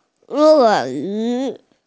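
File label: "e4yu2", Chinese, female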